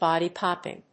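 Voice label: "body-popping", English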